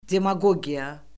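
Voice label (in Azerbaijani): "demaqogiya", Azerbaijani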